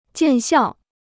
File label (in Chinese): "见效", Chinese